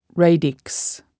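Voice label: "radix", English word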